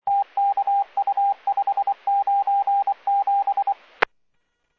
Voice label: Medevac, scanner; NativeAirAmb